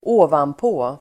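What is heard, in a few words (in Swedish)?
"ovanpå", Swedish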